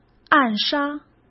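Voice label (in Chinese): "暗杀", Mandarin Chinese; hsk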